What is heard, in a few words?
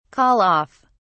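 "call off", British English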